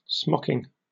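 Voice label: "smocking", British English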